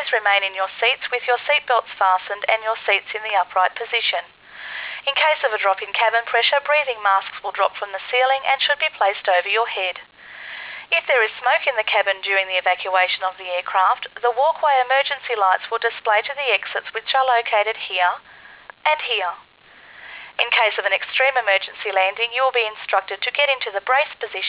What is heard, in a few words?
safety, announce